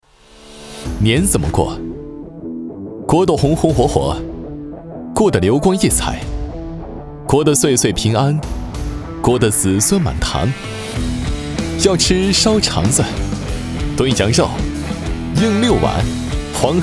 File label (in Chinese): 男 广告活力TVC; 年怎么过